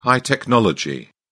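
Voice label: "high technology", English, British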